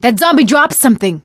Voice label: fallen survivor, survivor voice; Left 4 Dead 2; Source Engine; video games; producer